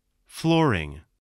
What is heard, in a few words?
"flooring", spelling